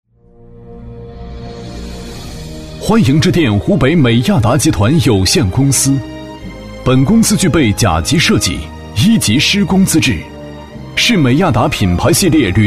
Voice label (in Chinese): 男, 电话彩铃, 大气; 厚重有力; 大气浑厚; 美亚达集团